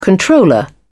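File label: "controller", British English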